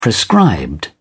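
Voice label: "prescribed", British English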